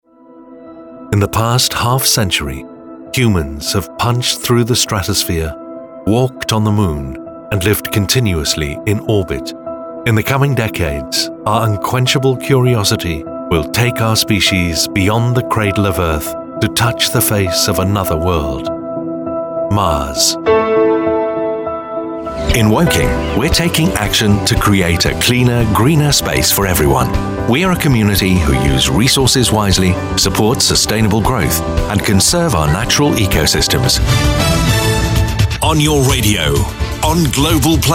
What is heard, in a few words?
Generic Commercials